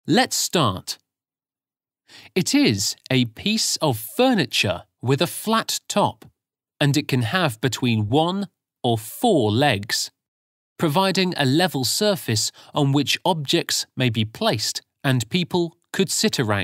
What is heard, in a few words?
English Listening Practice English language listening quiz